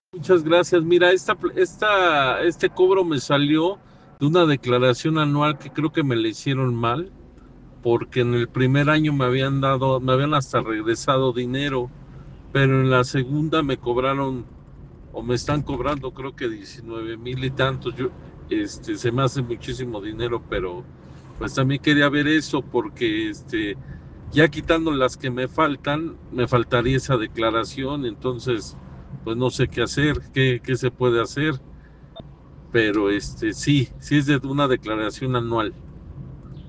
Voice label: whatsapp ptt